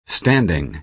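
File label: "standing", American English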